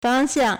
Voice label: "fang1xiang4", Chinese

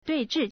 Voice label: "dui4zhi4", Chinese

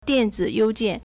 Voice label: "dian4ziyou2jian4", Chinese

